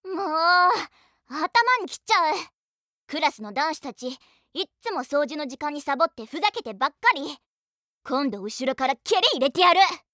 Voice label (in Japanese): 女子高生